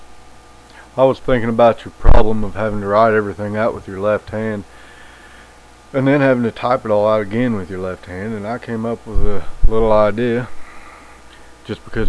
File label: example narration